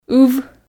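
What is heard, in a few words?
"ыв", Komi, female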